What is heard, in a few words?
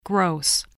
"gross", spelling